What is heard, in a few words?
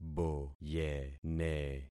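"boyene", concatenated syllables; Affixing experiment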